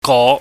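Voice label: "go", Cantonese, mid rising tone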